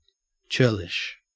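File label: "churlish", English, Australian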